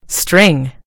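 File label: "string", British English; gcompris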